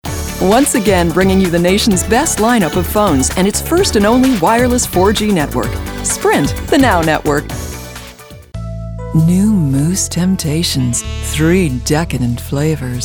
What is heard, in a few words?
Comml